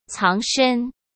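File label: "藏身", Mandarin Chinese; hsk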